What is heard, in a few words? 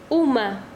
"cabeza", Quechua Chanka